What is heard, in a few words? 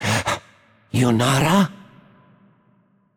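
voice over, Romanian; Kennen; game outro; bloom minigame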